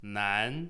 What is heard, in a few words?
"男", Chinese